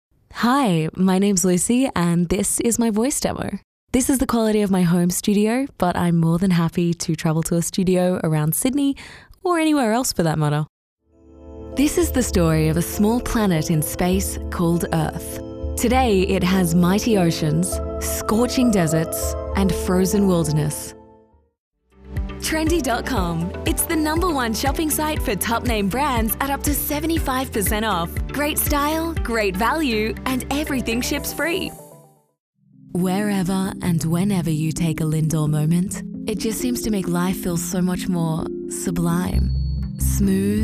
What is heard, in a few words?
Australian; English (Australian)